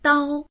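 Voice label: "dao1", Chinese; 刀; 刃物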